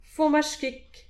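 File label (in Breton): "fourmaj kig", Breton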